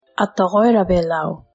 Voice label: palauan

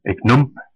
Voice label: my name is, west flemish

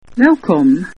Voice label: welcome, dutch

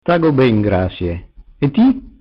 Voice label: fine, venetian

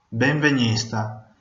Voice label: welcome, venetian

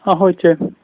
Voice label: hello, slovak

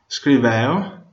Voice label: write, Venetian

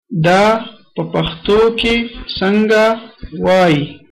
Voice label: how to say, pashto

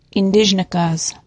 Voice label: my name is, ojibwe; ... ndizhinikaaz

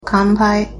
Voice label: cheers, japanese; 乾杯; (kanpai) lit. "dry glass"

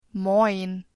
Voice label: hello, german; Moin; (used in Northern Germany)

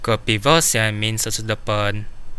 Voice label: good evening, kadazan

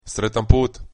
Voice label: bon voyage, croatian

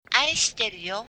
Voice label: i love you, japanese

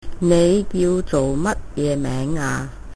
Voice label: name, cantonese; Chinese (Cantonese)

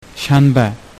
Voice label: saturday, uzbek

